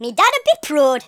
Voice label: "victory", Geordie; speech